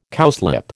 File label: "cowslip", American